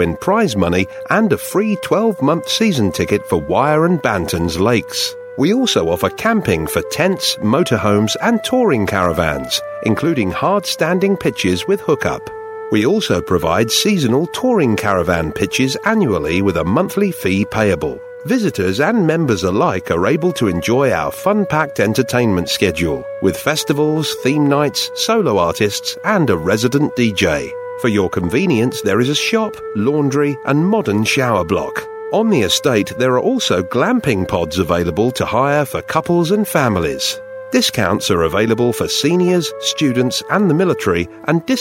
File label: message on hold